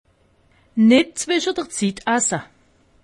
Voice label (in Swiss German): "zweschezitt", alsace; medecine